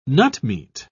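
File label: "nutmeat", English, British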